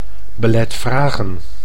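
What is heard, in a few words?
"belet vragen", Dutch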